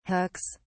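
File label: "hooks", British English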